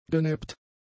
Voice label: "geneppt", German; adjectives